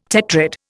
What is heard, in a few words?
"tetrad", Indian